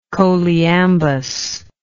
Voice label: "choliambic", English